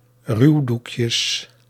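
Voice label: "ruwdoekjes", Dutch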